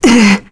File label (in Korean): Vox Damage, kr; voices; heroes; Lorraine; kingsraid